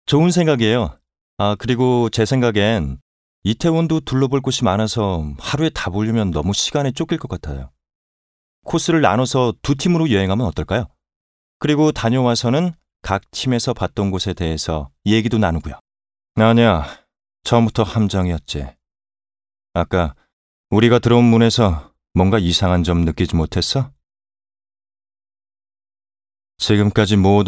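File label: Korean, male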